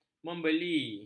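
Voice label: "membeli", Malay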